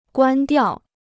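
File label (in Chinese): "关掉", Chinese; guān diào; ปิด; ปิดเครื่อง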